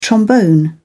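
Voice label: "trombone", British English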